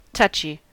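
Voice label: "touchy", US English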